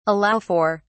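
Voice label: "allow for", British English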